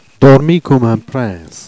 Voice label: "dormi coumme un prince", Jèrriais